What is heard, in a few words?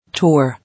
"Tour", English